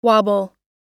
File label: wobble, us